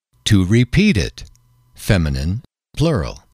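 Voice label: "to repeat it", English, male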